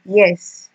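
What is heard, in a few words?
"yes", Nigerian Pidgin, female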